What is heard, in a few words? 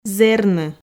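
"зэрны", Komi, female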